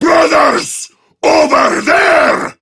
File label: Enemy Spotted Prime Grineer Male